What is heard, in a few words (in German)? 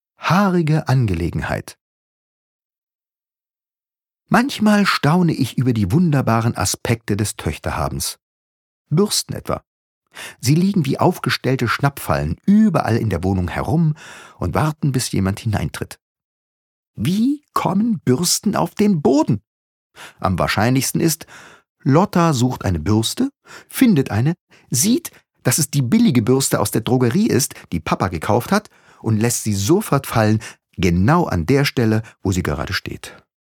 Kolumne, maenner